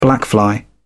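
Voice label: "blackfly", British English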